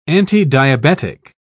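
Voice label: "antidiabetic", British English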